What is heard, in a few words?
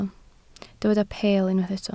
"peel", Welsh